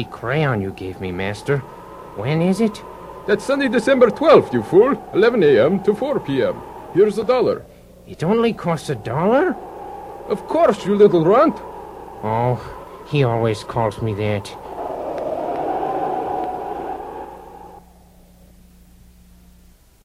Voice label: promo car wash